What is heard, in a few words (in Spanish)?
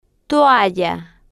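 "toalla", Spanish, female, slow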